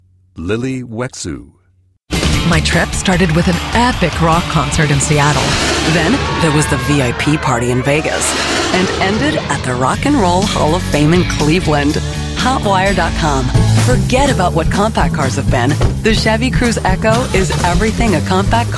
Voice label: Commercial Women; Female VO; Female VO With Home Studio